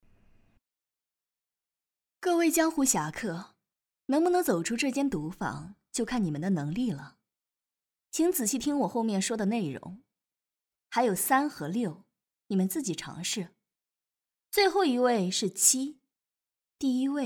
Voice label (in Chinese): 客栈女掌柜